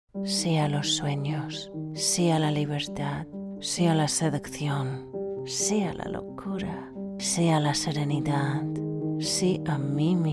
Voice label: castellano; spanish reel